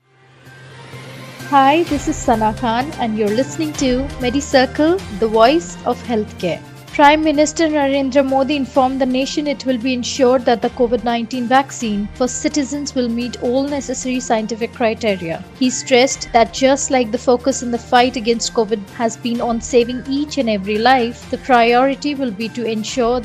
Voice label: english news